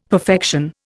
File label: "perfection", Indian; Dictionary